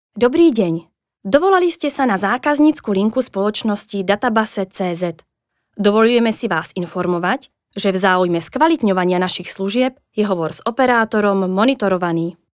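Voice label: Slovak, female; Hlásky pro IVR menu ve více jazycích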